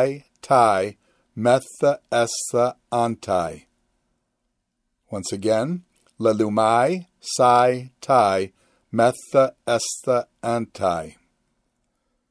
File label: Quick Chant Perfect Middle Passive Ind; luw